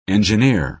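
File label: "engineer", American English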